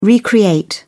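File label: "recreate", British English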